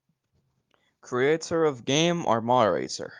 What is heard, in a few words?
voice message